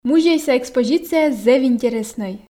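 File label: "музейса экспозицияыс зэв интереснӧй", female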